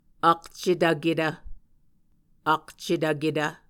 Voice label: "akcetvketv", Muscogee; Mvskoke Words with Voicing Consonants; A Dictionary of Creek/Muskogee; SIL International; Onvkv Ohhvyayices

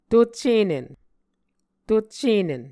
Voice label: "tuccenen", Muscogee; A Dictionary of Creek/Muskogee; SIL International

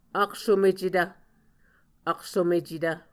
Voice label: "aksomecetv", Muscogee

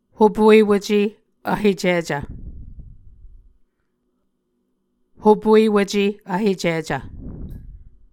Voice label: "hopuewuce vhecicv", Muscogee

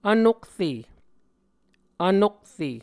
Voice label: "vnokre", muscogee

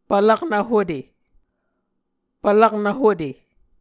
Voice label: "pvlaknv hute", Muscogee

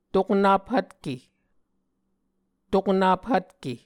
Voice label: "toknap hvtke", Muscogee

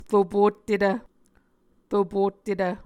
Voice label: "ropottetv", muscogee

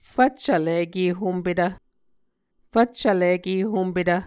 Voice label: "fvccvlikehompetv", Muscogee; Mvskoke Words with Repeated Consonants; Onvkv Ohhvyayices; A Dictionary of Creek/Muskogee; SIL International

